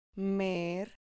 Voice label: "mer", Spanish